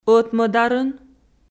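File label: "ӧтмӧдарын", Komi, female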